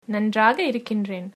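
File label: fine, Tamil, female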